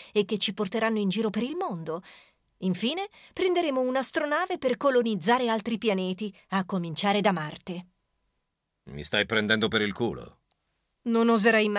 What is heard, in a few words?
Dialogue, Italian